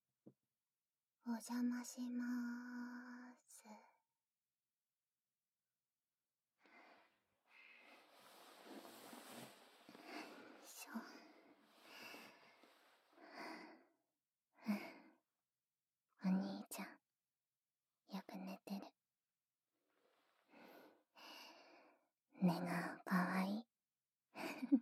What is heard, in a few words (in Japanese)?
溺愛癒しマッサージ, ASMR; クールなブラコン妹の〝お兄ちゃん大好き♡〟溺愛癒しマッサージ♡